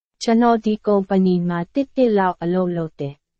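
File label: Burmese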